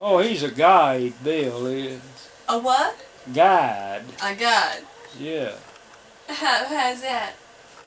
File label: Conversation with 52 year old white male and acquaintances West Virginia; american english dialect